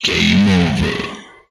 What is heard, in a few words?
"Game Over", Speech; retro arcade